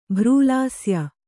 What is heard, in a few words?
"ಭ್ರೂಲಾಸ್ಯ", kannada